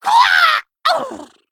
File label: Female Kobold Death